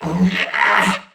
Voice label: Struggle Crewmen; Dialog; Barks; Battle; Warframe; CorpusCrewmen; CrewmanD